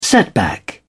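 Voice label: "setback", British English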